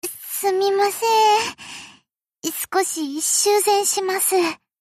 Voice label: Ship Voice Transport Ship Docking Minor